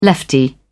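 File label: "lefty", British English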